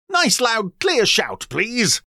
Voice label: call nice loud clear shout; Bouncy Bingo Balls; Eyecon